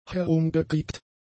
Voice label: "herumgekriegt", German; adjectives